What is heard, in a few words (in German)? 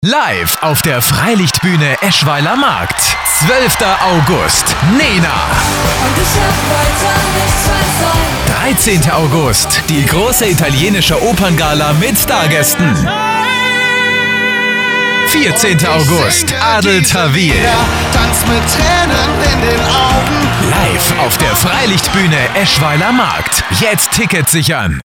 Radiospot; Freilichtbühne